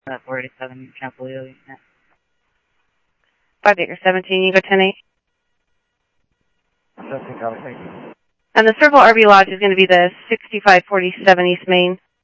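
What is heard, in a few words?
Simulcast police department, scanner audio trunk; Mesa Simulcast; MESA PD Superstn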